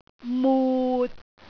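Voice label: "mut6", Cantonese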